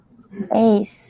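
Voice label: "ace", Japanese, female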